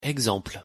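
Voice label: pronunciation "exemple", French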